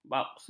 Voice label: "bauksit", ms